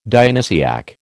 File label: "Dionysiac", American